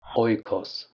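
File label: "h1oikos", Proto-Indo-European; Mittanian aika; Hurrian 𒀀𒄿𒅗 aika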